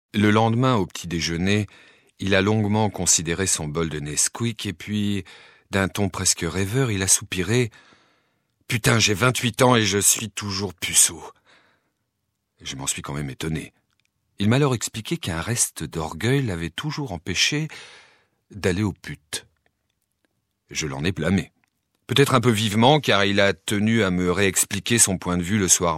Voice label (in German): Telefonansagen, maennlich; französisch